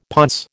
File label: "ponce", American